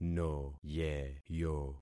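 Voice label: "noyeyo", concatenated syllables; Affixing experiment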